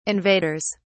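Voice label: "invaders", English, uk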